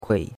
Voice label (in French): "kui3", chinois; Cours-de-Chinois